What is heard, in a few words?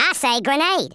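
"grenade", Redneck, male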